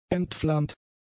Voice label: "entflammt", German; adjectives